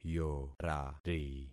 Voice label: "yorari", concat syllables; Affixing experiment